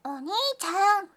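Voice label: "oniichan", Japanese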